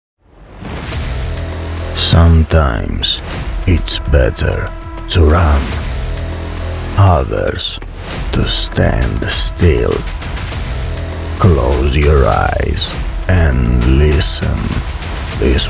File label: Deep Voice Trailer Voice